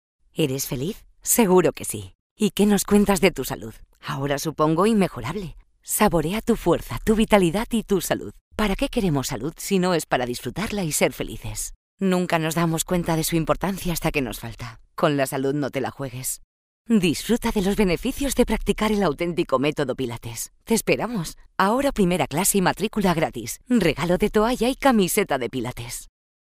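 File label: spanish female narration; voice demos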